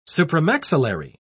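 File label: "supramaxillary", British English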